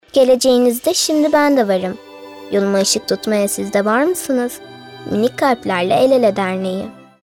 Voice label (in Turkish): Reklam